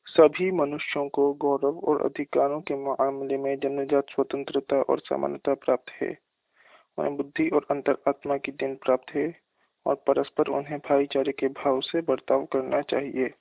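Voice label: udhr hi, Hindi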